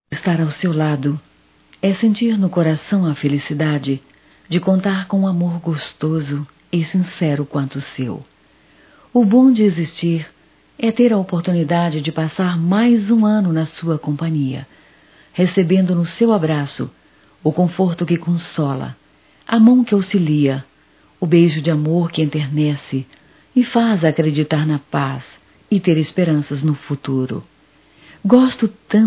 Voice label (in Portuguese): Ano novo romantico, fem; Natal e ano novo; Telemensagens 2017